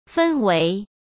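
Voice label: "氛围", Mandarin Chinese; hsk; chinese-flashcards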